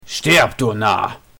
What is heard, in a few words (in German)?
Narr; Stirb, du Narr!